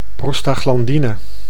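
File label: "prostaglandine", Dutch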